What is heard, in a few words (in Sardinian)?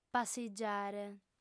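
"passigiare", female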